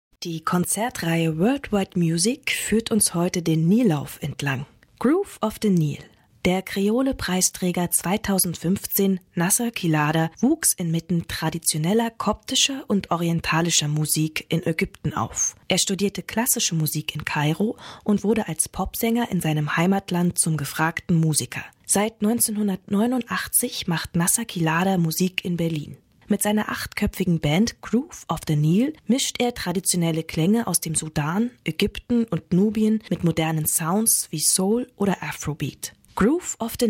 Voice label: Anmoderation Radio

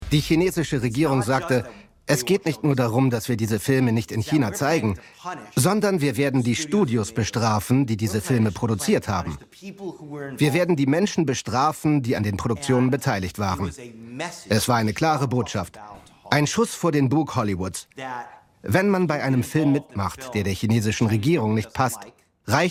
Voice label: Doku; Arte

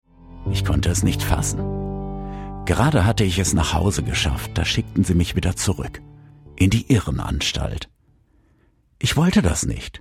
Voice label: Charakter nachdenklich Deutsch

